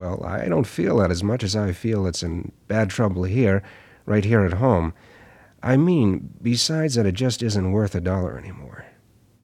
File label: Announcer Process; Tony Schwartz Collection